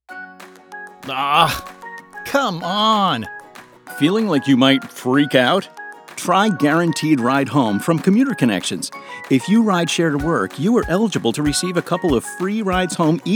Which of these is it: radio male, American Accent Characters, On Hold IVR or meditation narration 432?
radio male